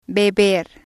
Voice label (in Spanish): "beber", spanish